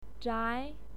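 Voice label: "zhai2", Mandarin Chinese pinyin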